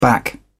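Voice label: "back up", British English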